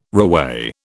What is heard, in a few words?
"reweigh", British